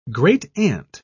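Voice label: "great-aunt", British English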